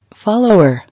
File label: "Follower", English